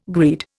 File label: "greed", Indian